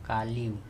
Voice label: "kaliu", Andamanese; bird